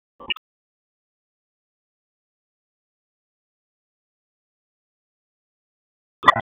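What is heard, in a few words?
Police Northwest District Tac, radio; Raleigh Police Northwest District Tac 1; wake p25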